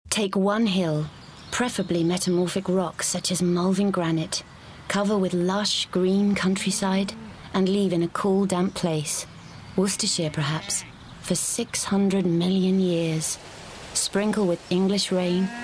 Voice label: Commercial

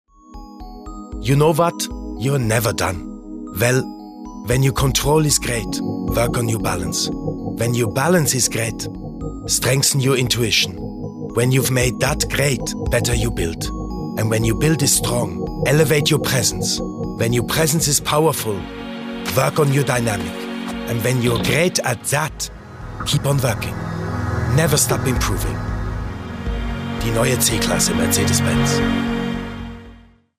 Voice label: English German radio; Mercedes

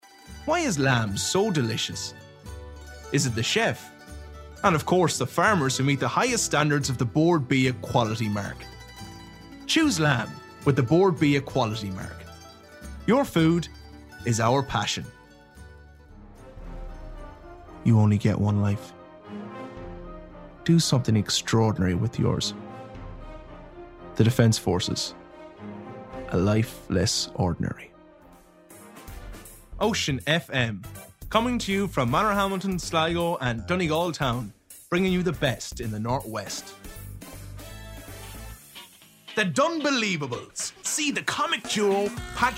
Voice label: commercial reel

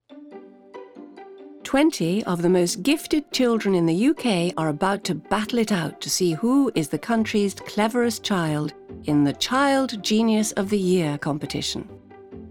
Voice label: Narrative; Genius

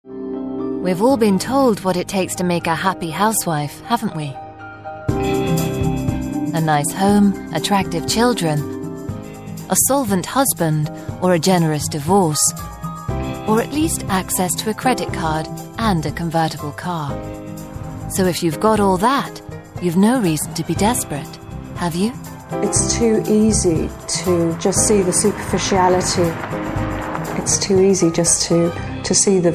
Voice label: NARRATIVE; Desparate Houswives

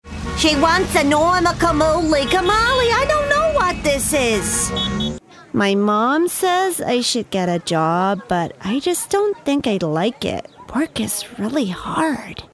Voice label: US characters

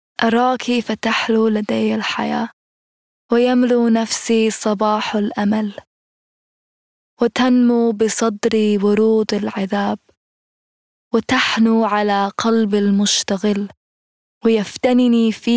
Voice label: Arabic

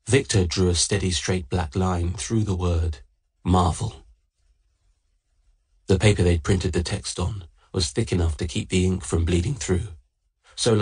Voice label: AUDIOBOOK; VICIOUS

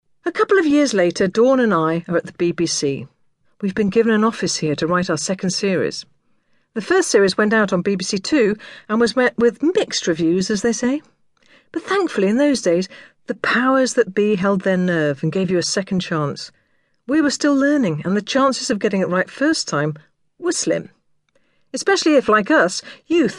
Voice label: audiobook; Bonkers

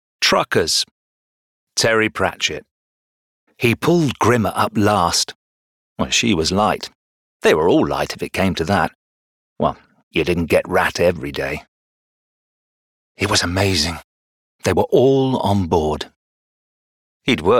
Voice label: audiobook

